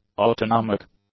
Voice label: "autonomic", English; adjectives

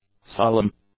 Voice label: "solemn", english; adjectives

